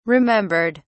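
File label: "remembered", British English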